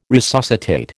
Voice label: "resuscitate", American